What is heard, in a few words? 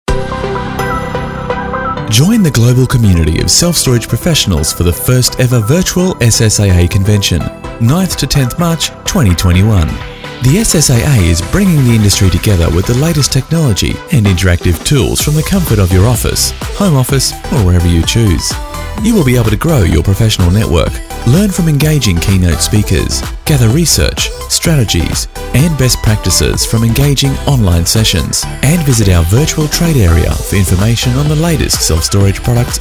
voiceover; Brisbane Voiceover Recording